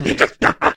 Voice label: growl, goblin; Fate The Cursed King; MONSTERS

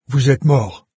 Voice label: die, NARRATOR, fr; Fate

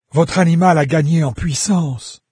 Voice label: pet level up, NARRATOR, fr; Fate

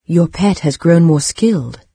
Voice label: petlevelup, narrator, en-UK; Fate

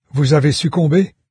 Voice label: die, fr, narrator; Fate The Traitor Soul

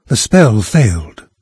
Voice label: spellfail, narrator; Fate The Cursed King; en-US

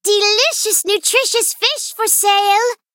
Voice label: fisherman, en-US; merch; Fate The Cursed King

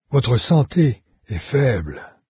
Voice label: health, fr, NARRATOR; Fate

